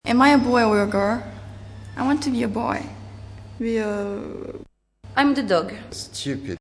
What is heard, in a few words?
Boy Girl